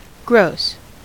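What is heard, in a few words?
"gross", US English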